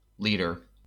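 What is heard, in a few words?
"liter", US English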